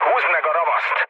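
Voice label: attack position, mood low, Hungarian; War Thunder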